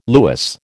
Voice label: "lewis", American

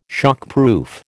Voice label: "shockproof", American

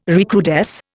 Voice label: "recrudesce", Indian

